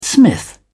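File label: "smith", British English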